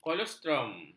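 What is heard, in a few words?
"kolostrum", Malay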